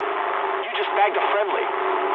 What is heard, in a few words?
eng player killed ally mood low, speech eng; War Thunder